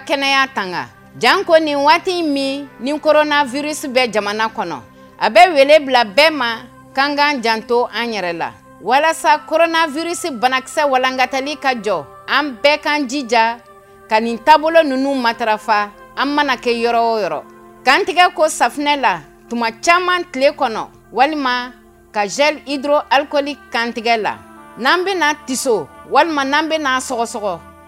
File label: COVID 19 RADIO BAMBARA, MALI